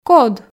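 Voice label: "код", Komi, female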